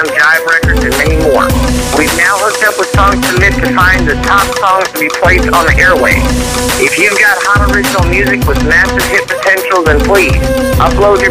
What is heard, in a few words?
radio drop